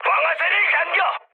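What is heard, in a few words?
Korean attack position mood med, speech; War Thunder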